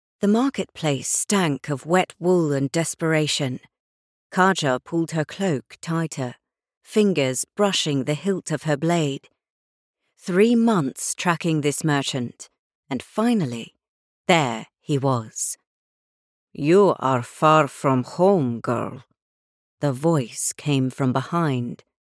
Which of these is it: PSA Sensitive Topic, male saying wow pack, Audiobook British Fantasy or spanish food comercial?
Audiobook British Fantasy